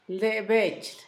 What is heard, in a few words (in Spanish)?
su hermano, Zapoteco, Asunción Ocotlan; Posesivos; INALI